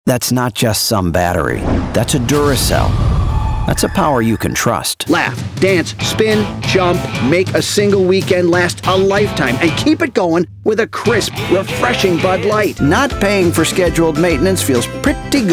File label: Male Voice Over Talent Commercial